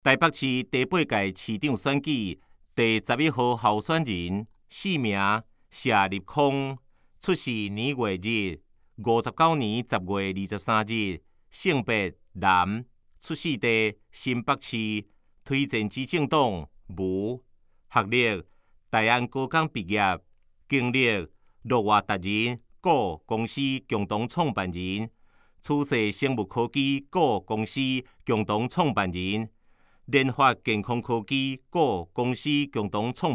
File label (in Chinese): 台語; 臺北市; 有聲公報; 市長; 臺北市第8屆市長選舉候選人介紹